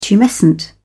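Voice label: "tumescent", British English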